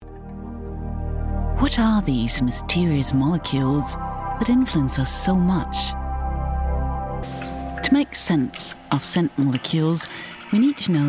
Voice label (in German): englisch doku; 3sat